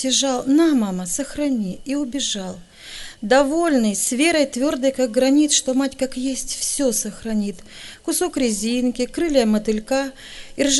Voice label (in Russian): Ребенок-стих; Для семейных; Лагеря; 2007 Семейный лагерь; Костер